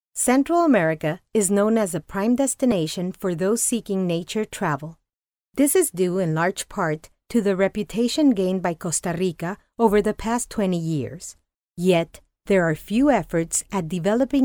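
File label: Spanish Latin America female English; spanish americas voice over talent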